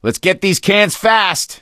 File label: elevator, survivor voice gambler; Left 4 Dead 2